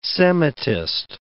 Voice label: "Semitist", English